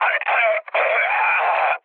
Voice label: damaged pilot, speech cze, mood low; War Thunder